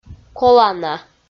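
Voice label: "колана" Komi, female; audio dict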